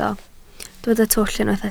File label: "twll", Welsh